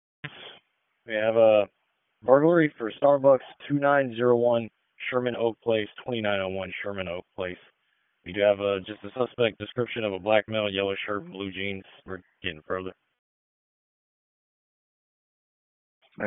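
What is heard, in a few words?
Police District Dispatch; Raleigh Police Northeast District Disp; wake p25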